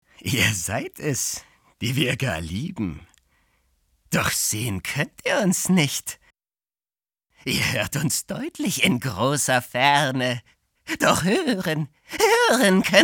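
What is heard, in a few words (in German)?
psycho, Männer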